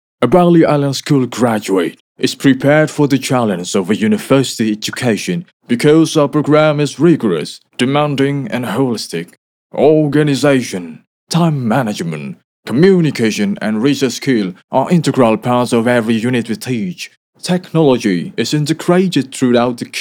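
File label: FORMAL English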